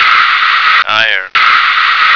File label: "iron", American